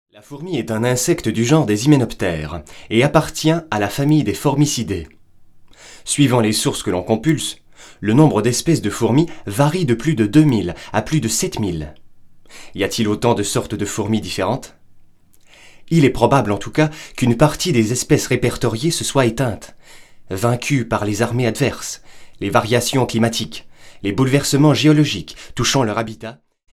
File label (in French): Narration